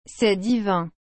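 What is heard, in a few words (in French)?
"C'est divin", French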